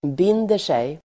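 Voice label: "binder sig", Swedish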